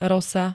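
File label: "rosa", Slovak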